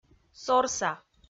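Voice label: "сорса", Komi-Zyrian, female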